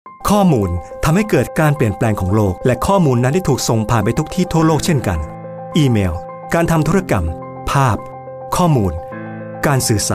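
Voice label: Thai, male, explainer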